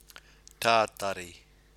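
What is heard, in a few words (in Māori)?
"tatari", Māori word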